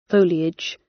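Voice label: "foliage" British English; Time, history; Thời gian, lịch sử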